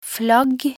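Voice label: "flagg", Norwegian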